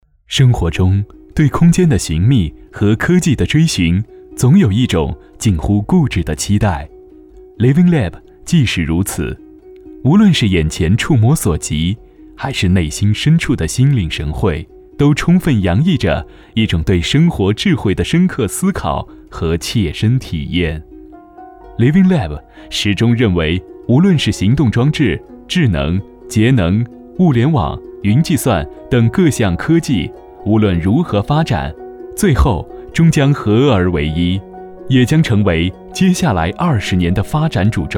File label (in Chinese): 男-BGM; 发现更大的世界